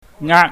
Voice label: "ngaak", pingyam